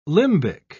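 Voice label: "limbic", British English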